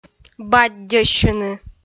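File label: "баддьӧссьыны", Komi, female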